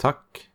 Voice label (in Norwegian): "Takk", Norwegian